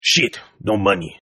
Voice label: Toughguy No money English, Tough Hispanic Male; Exclamation Sound Effects